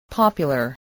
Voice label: "popular", English